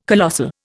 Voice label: "colossal", Indian